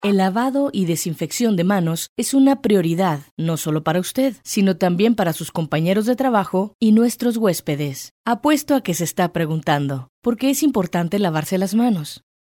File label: narration